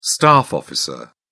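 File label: "staff officer", British English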